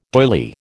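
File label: "doyley", American English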